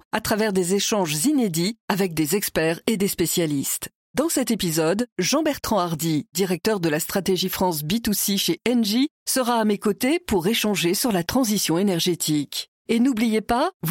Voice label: PROMO PODCAST